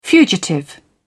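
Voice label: "fugitive", British English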